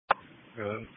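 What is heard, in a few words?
Police Patrol (Dispatch)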